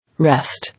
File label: "Rest", English